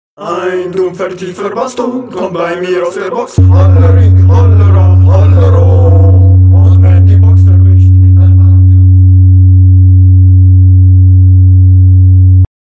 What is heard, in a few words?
Bass Skit